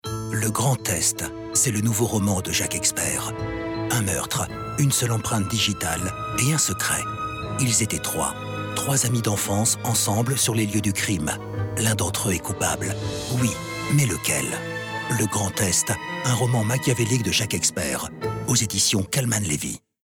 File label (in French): Pub Livre; Le grand Test